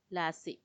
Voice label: "lasi", seed; semilla